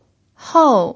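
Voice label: "hou", fourth tone, Mandarin Chinese pinyin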